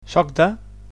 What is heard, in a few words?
"I'm from", Catalan